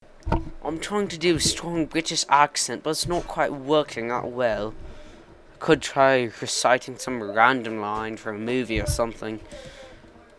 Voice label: accent